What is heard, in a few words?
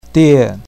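"die4", Chinese pinyin, fourth tone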